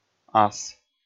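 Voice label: "ас", Komi, male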